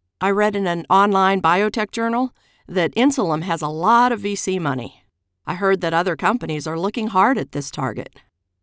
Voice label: Medical eLearning Character Role